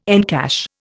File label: "encash", Indian